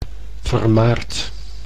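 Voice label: "vermaard", Dutch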